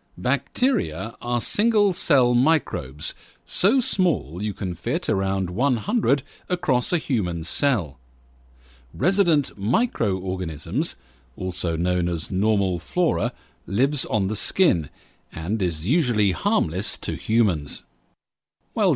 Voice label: E-learning sample